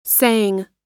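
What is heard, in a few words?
"saying", American English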